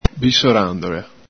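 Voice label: "bisorandola", Veneto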